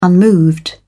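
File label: "unmoved", British English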